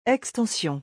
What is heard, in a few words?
"extension", French